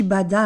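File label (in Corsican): "baddà", Gravona (sud); danser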